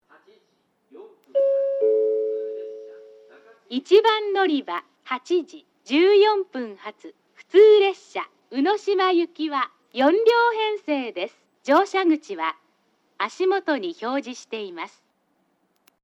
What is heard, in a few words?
tsuiki local unoshima; 小倉駅 案内放送（宇島行き）